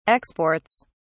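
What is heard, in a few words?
"exports", British English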